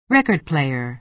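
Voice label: "record player", American English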